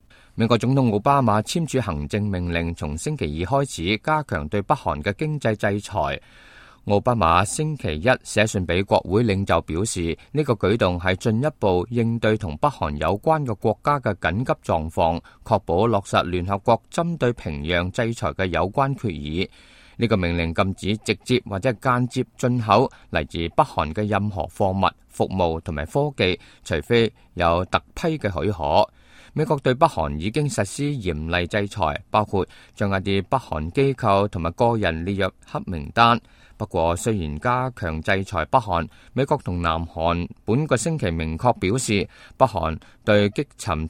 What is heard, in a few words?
cantonese, news